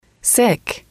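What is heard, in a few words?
"sick", English, female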